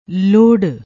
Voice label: "lorr", Punjabi; ਲੋੜ